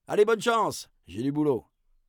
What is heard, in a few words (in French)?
narrateur bonne chance; La-Fabrik